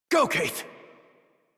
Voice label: Horse Sprint Adult; Warframe; Duviri

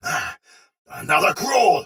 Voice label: see player shout soldier sword agent male; Sinecure

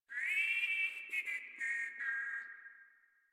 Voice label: Patrol Grineer Male, Barks, Battle

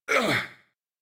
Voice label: Abil Jump, en; Warframe; New War; Teshin

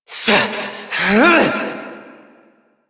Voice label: Frustration

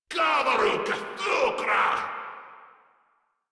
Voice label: Cover Me, Grineer Male; Warframe

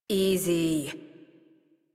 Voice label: Horse Calm Adult; Warframe; Duviri

